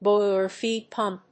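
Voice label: "BOILER FEED PUMP", English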